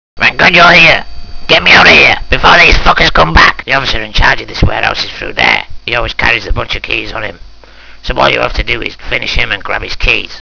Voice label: Runner old man